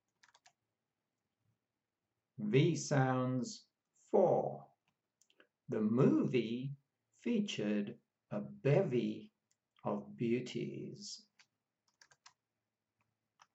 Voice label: V sounds, pronunciation of australian english